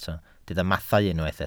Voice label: "mathau", Welsh